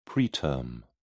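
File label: "preterm", British English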